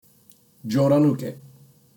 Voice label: "Jodan uke", Japanese; Basic Japanese Terms; karate